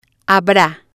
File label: "habra", spanish